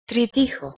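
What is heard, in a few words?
"tritixo", Proto-Indo-European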